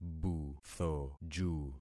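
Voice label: "buthoju", concatenated syllables; Affixing experiment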